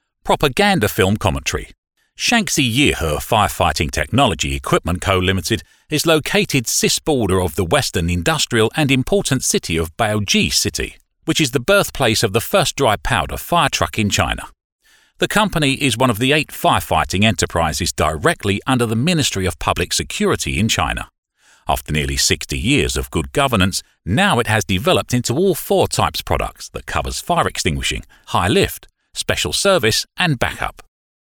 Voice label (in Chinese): 影视-宣传片英文电台; 银河消防